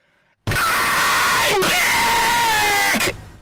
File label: extremely loud I'm back